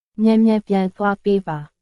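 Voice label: Myanmar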